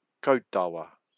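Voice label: "kautawa", Māori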